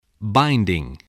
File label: "binding", spelling